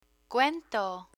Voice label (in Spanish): "cuento", Spanish; child care vocabulary